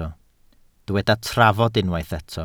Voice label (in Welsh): "trafod" Welsh